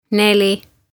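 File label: "Neli", Estonian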